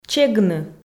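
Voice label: "чегны", Komi, female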